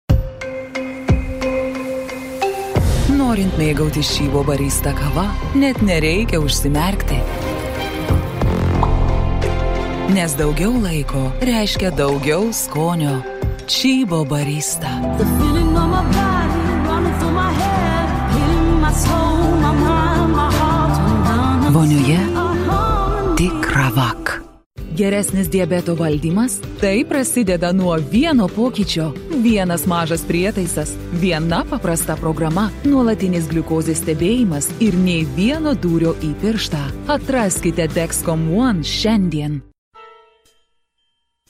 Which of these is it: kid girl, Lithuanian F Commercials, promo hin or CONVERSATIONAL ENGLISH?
Lithuanian F Commercials